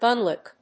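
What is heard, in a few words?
"gunlock", English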